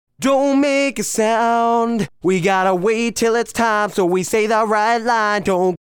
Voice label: Throat Length Verse Distressed